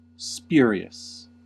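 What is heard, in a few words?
"spurious", US English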